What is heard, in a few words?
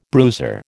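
"bruiser", American English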